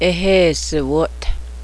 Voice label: "boil", Cheyenne language